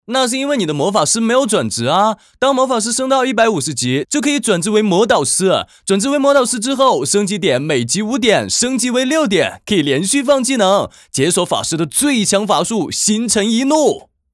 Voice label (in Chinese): 台湾腔